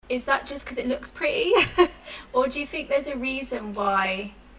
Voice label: echo two usb mics